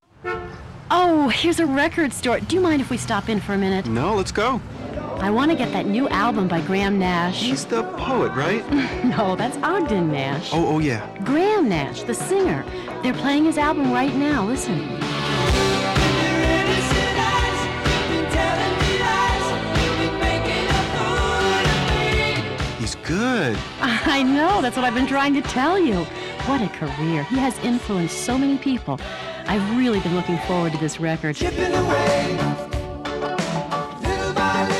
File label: Radio Commercials